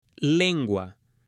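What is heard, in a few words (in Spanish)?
"lengua", male; CUERPO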